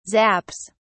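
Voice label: "zaps", British English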